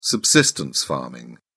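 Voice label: "subsistence farming", British English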